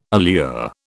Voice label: "allure", British